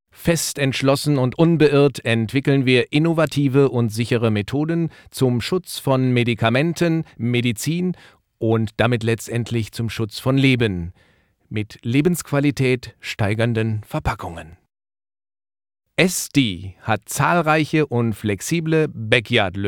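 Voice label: German male voice over; Foreign Voice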